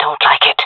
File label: disagree, fem; jungle; Counter Strike Model Pack; CS:GO